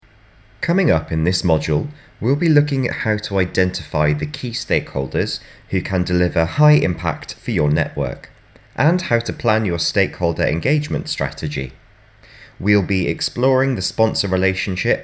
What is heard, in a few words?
Narration; Radius